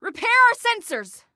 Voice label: Repair Sensors, Female; Female 02

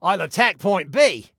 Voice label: ATTACK, Male; Male 02

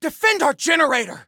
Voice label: Defend Generator, Soldier Male; Soldier Male 01

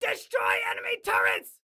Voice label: Attack Turrets, Excited Guy

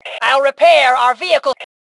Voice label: Self Repair Vehicle, Female; T2 Female 04

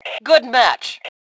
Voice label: Compliment Good Game, Female; T2 Female 02

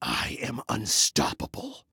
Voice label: Taunt Brag, Male

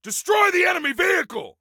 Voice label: Attack Vehicle, Soldier Male; Soldier Male 03

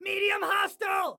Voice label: Warn Medium, Excited Guy

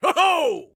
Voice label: "Woohoo", Soldier Male

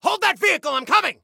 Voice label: Need Hold Vehicle, Soldier Male; Soldier Male 01

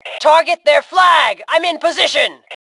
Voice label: Target Flag, Female; T2 Female 04

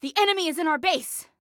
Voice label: Enemy In Base, Female

